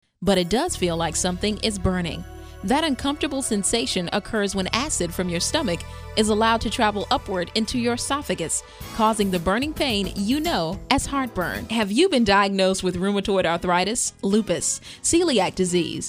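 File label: African American, voiceovers; foreign languages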